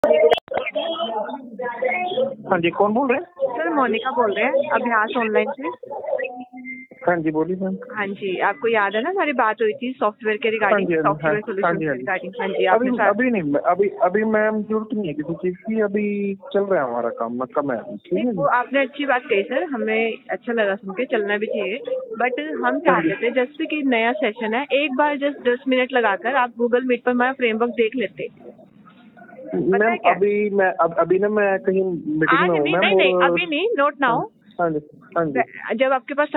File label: call recording